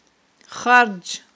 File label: "хардж", aghul